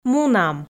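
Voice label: "мунам", Komi, female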